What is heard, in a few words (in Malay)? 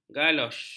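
"galosh", Malay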